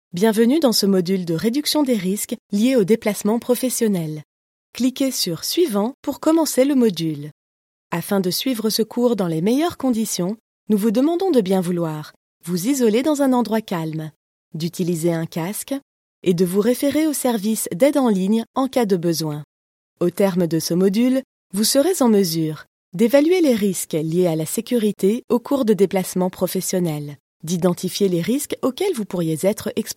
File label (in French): E Learning Prevention au travail